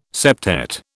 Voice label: "septet", British

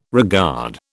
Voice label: "regard", British

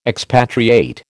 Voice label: "expatriate", American

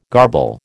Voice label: "garble", American

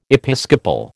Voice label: "episcopal", American

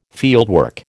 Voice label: "fieldwork", American

